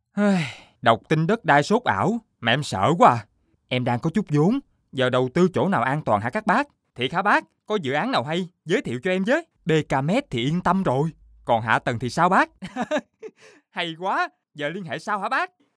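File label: Vietnamese South, male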